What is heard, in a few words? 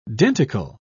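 "denticle", British English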